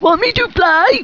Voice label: annoyed; war2; humans; gnomish flying machine; Want me to fly?